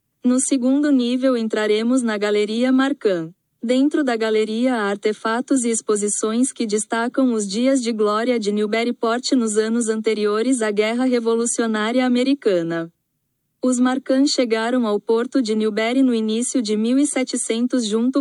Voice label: portuguese, audio tour